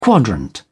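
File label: "quadrant", English, British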